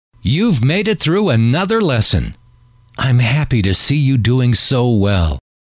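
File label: you've made it through another lesson I'm, male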